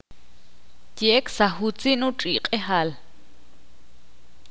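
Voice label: mañana el perro no correr, Ixil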